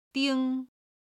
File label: "ding1", chinese